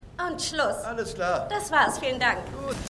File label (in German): Aufnahmeleiterin Mann; The Good Fight